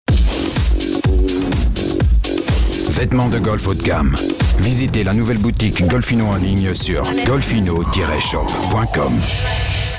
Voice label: spot franz; golfino